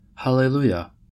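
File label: "hallelujah" US English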